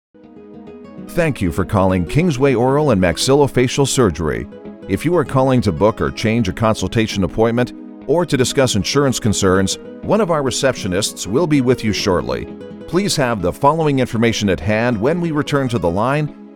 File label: english american ivr; Profundo e autoritário com clareza elegante e calor